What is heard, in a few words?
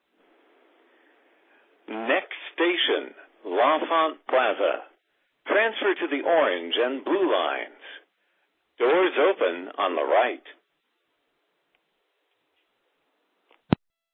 metro announcement slow and steady